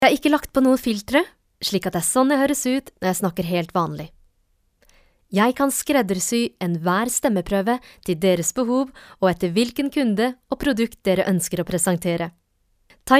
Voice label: locutora noruega norwegian voiceover